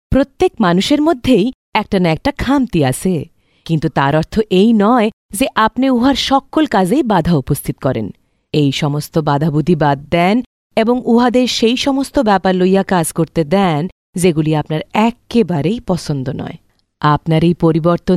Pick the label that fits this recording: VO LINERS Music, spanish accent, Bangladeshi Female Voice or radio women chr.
Bangladeshi Female Voice